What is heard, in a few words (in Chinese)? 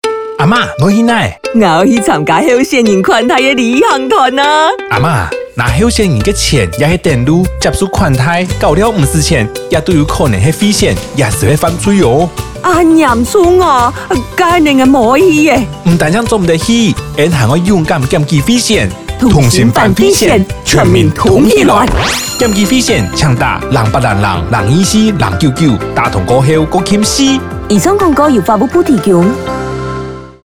反賄選廣播 客語; 112法務部反賄選廣播